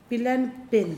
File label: "coconut juice", Pingelapese